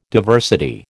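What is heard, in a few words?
"diversity", American English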